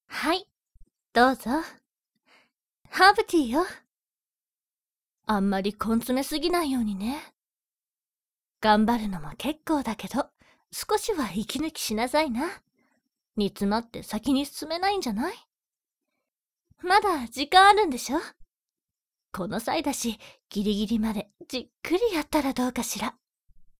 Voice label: voice girl